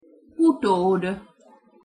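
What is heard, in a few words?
"utod", Hungarian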